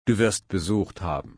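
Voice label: "besuchen", German, slow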